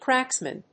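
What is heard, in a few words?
"cracksman", English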